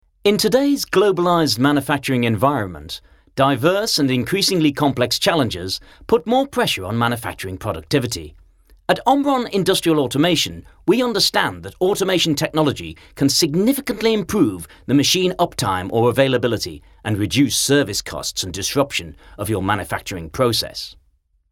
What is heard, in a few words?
uk, jingles; demo